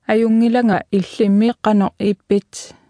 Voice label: fine, greenlandic